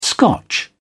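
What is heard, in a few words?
"scotch", British English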